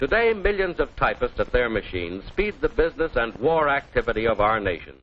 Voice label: millions of typists, quirky female typing instructor; VOCALS and SPOKEN WORD